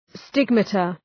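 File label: "stigmata", English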